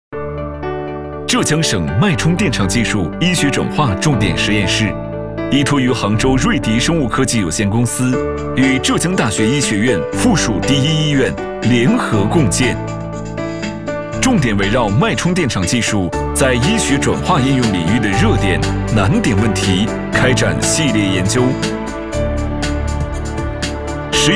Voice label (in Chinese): 男 科技感客观介绍片 省重点实验室